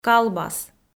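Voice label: "калбас", Komi, female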